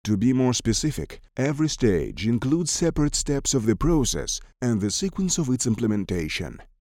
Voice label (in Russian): Английский. Диктор; voices male